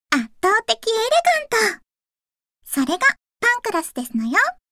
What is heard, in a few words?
Voice victory